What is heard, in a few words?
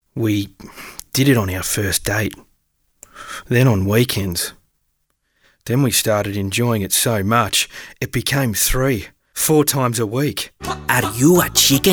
Voice Over